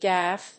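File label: "gaff", English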